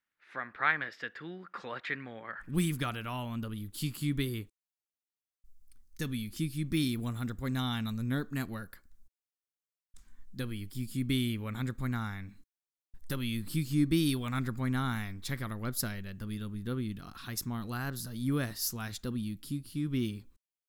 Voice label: spots ads